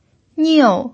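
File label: "niu", fourth tone, Mandarin Chinese pinyin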